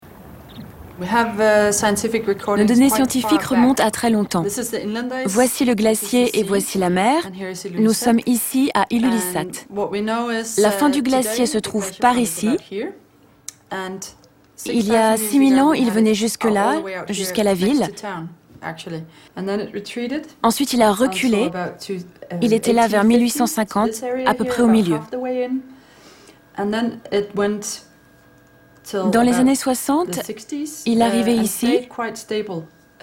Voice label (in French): docu; Artique